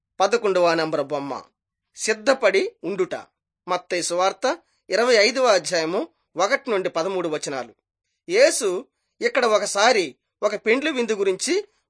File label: telugu bangladesh; jesus teacher and healer